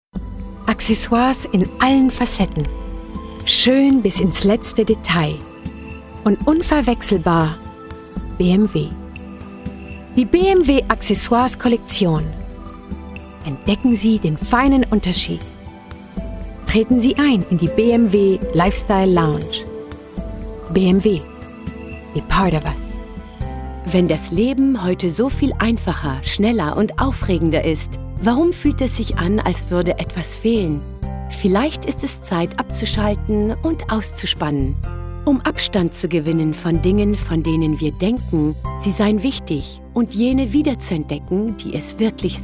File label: German Showcase